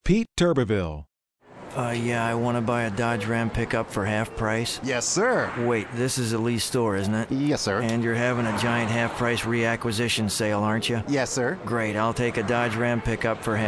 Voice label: FLAT

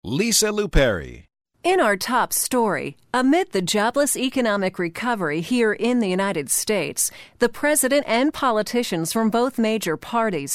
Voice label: NEWS ANCHOR

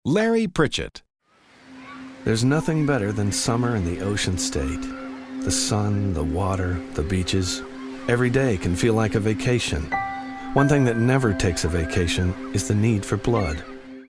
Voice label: soft spoken

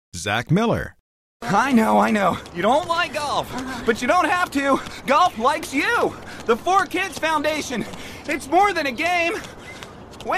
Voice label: QUIRKY

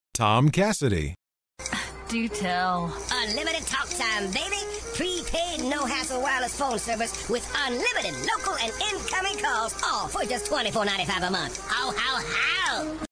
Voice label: OFFBEAT ELF; Elf Elves

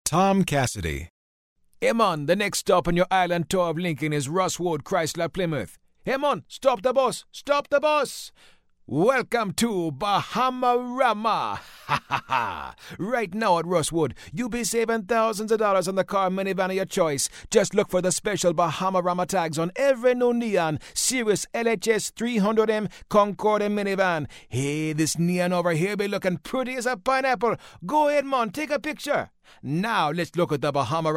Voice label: JAMAICAN

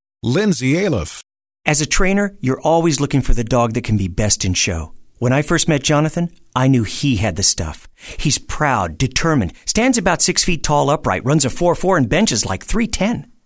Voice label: DRY WIT

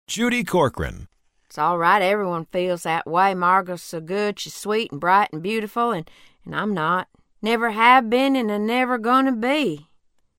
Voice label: hillbilly, redneck

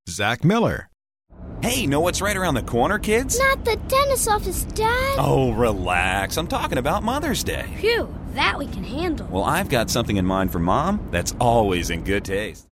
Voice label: FATHERLY, DAD

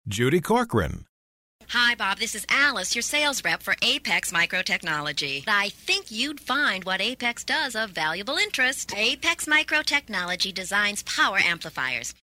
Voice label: nasal

